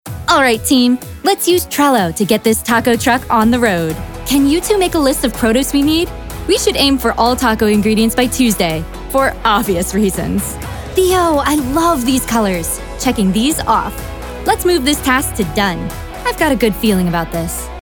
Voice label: Upbeat Happy Excited